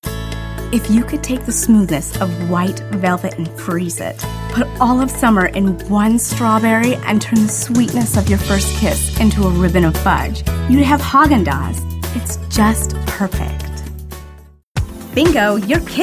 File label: voiceover female